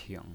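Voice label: "ping" third tone, pinyin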